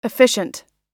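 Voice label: "efficient", English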